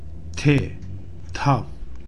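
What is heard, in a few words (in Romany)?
"Thav", Romani; Romska-alfabetet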